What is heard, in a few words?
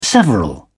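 "several", British English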